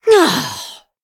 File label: defeat, en; Cookie Run